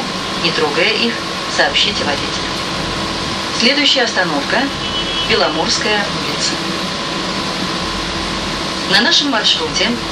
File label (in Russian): Информатор Московский автобус; Новый информатор Агит 11АП А851